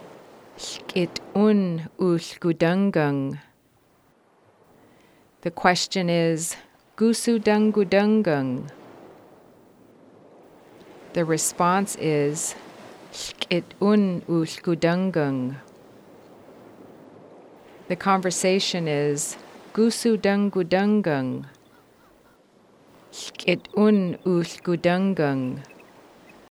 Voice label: Haida Goose, hlgit'ún (goose)

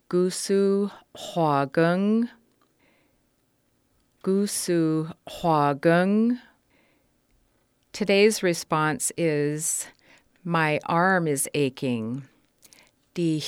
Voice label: Haida arm, My arm (díi xyáay); My aches.; Haida 1 arm; Gwaagánggang. (My aches.)

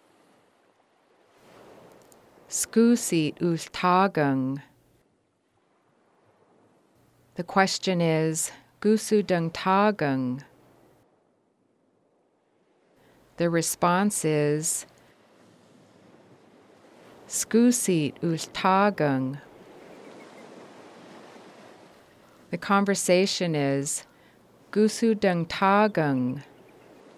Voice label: Haida Potatoes, sgúusiid (potato); I am eating .; Haida 3 Potatoes

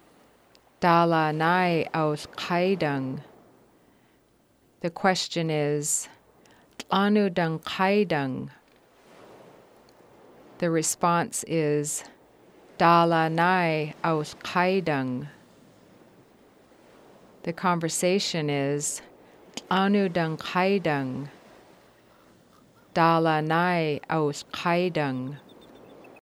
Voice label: Haida bank, dáalaa náay (bank); Haida 10 bank

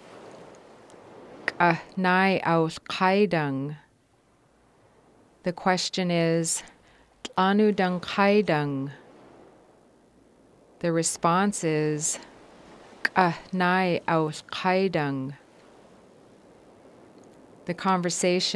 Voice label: Haida theatre, k'ah náay (theater); I am going to the .; Haida 10 theatre; aa uu Hl káaydang.

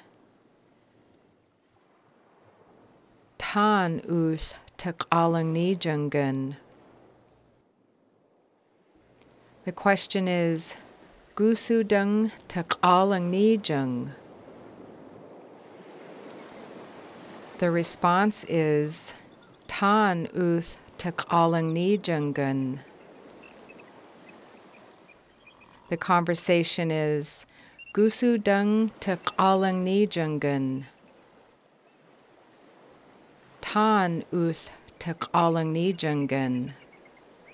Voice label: Haida Black Bear, táan (black bear)

